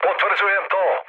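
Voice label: Serbian player killed enemy mood med, speech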